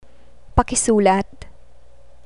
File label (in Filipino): "pakisulat", Tagalog; survival travel words